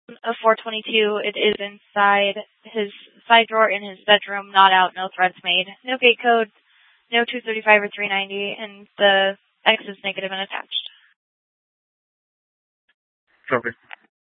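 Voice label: police dispatch, scanner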